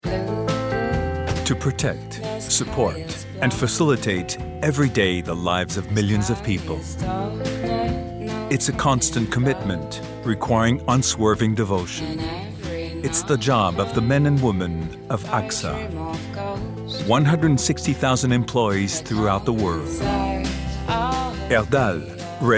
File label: voice over US; AXA